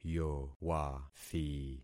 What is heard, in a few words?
"yowathi", concat syllables; Affixing experiment